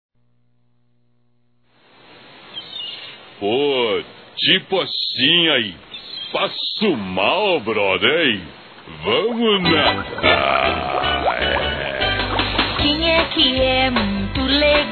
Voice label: Aniversário Irmão Masculino; Telemensagens 2017; Aniversários; Aniversário Infantil

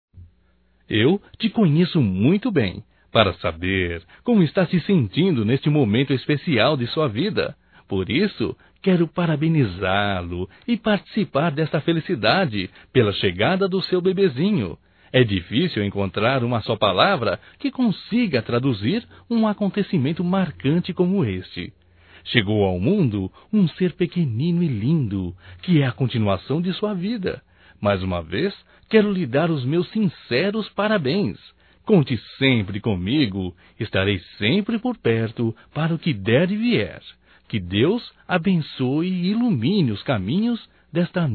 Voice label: PARABENS PELO BEBê (M), Telemensagens; Homenagens; Telemensagens 2017

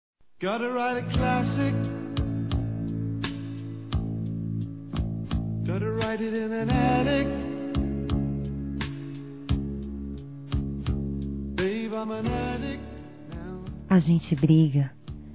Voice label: RECONCILIAÇÃO F

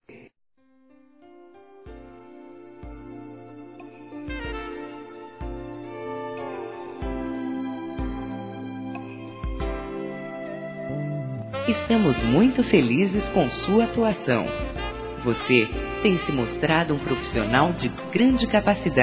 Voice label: INCENTIVO A FUNCIONARIO, fem, Telemensagens Empresarial; Telemensagens 2017

